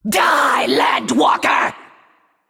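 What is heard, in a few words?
Female Naga Centaur Attack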